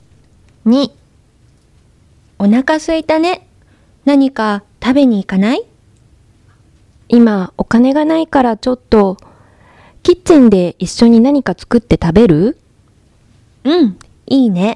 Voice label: Conversation